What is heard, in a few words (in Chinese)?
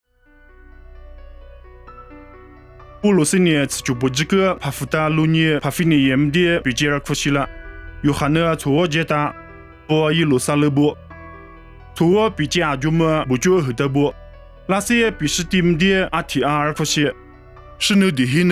凉山彝语; 地中海别加